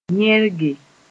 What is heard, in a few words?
"yẽrge", moore